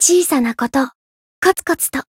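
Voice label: Voice growth, Japanese; saki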